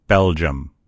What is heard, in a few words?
"belgium", en_US; gcompris; geography